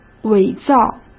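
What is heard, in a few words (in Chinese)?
"伪造", Mandarin Chinese; hsk; chinese-flashcards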